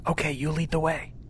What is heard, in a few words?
"you lead", hostage; css